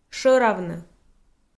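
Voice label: "шӧравны", Komi, female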